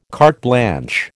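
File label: "carte blanche", American English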